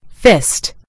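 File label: "fist", American English; gcompris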